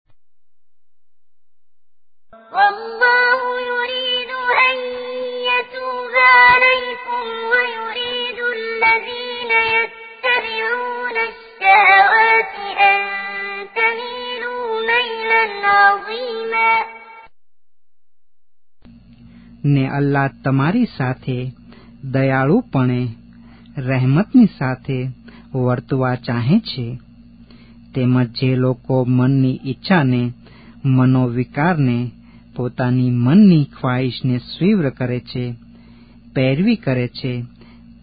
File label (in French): sourate nisa gujrati, CORAN